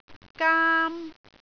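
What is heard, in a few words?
"gaam1", Cantonese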